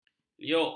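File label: "liuk", Malay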